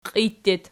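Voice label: "qiij" locative, Archi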